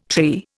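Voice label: "tree", Indian English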